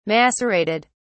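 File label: "macerated", British English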